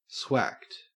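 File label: "swacked", Australian English; pronunciations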